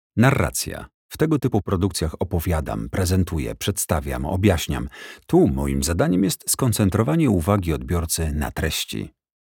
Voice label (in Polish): lektor NARRACJA